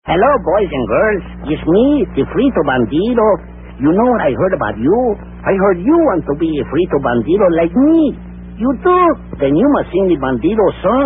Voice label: commercials, corn chips; fritos